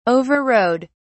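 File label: "overrode", English, British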